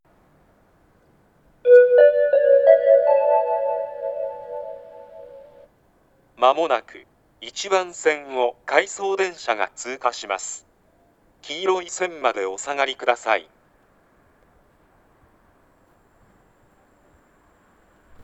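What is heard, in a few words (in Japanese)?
通過放送, 旭型放送(男声), 回送電車 通過; 回2116Hでの収録です。こちらも当列車限定の文面でした。; 牛浜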